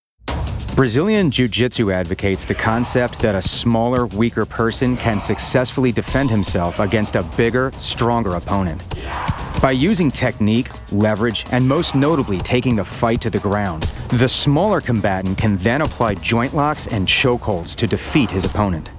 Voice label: Narration Documentary